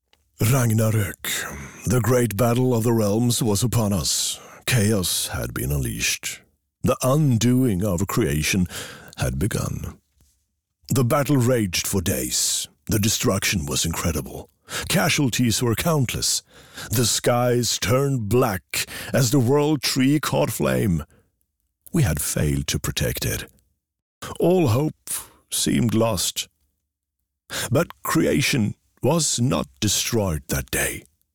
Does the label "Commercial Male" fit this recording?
No